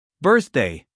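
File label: "birthday", American English, male; everyone-can-use-english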